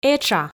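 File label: "этша", Komi, female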